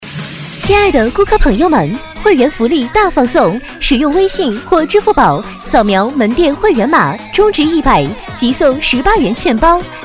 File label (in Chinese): 促销, 女